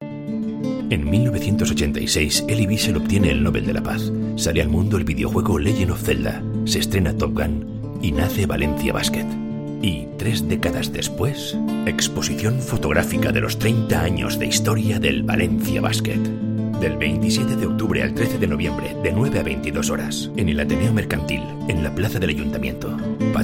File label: MALE, advertising